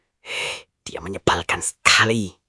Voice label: indonesia, indonesian negative phrases

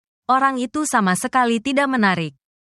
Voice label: indonesian phrases

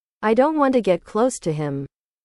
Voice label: english

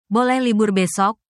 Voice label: indonesia, indonesian negative phrases

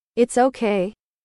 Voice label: english

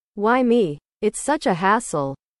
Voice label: english

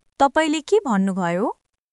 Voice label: Nepali, basic phrases

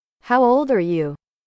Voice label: english

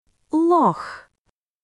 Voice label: Russian, russian phrases insults; ロシア語のネガティブなフレーズ

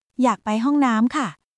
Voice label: Thai, basic thai phrases

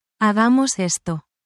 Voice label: spanish, spanish phrases

